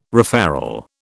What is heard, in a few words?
"referral", British